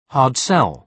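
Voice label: "hard sell", British English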